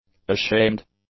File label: "ashamed", English; adjectives